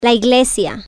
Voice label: "church" Spanish